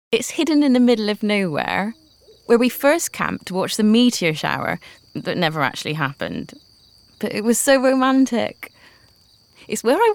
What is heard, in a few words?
female English West Country accent commercial; Devine Voices